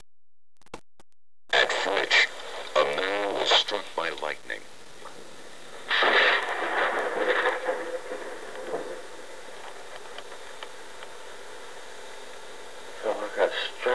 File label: ugh struck; Yes, Its the "ugh I've been struck" quote from him himself!; UGH I've been struck guy